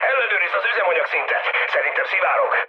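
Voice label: Hungarian tank damaged mood high, speech; War Thunder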